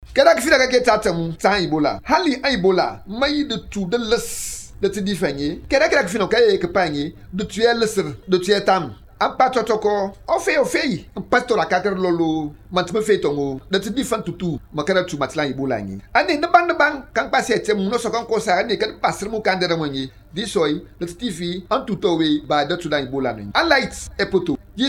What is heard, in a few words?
Themne; ebola; radio spots